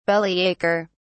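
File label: "bellyacher", British English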